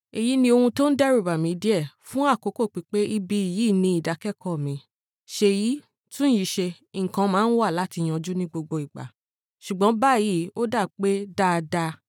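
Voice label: Yoruba, Nigeria, female, voice over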